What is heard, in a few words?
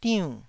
"diunn4", Taiwanese